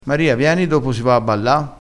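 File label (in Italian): "faccende", vocabolario dialettale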